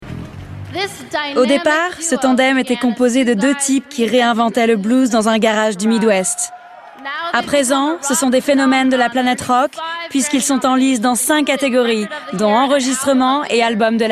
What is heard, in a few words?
Voice Over